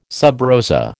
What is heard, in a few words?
"sub rosa", American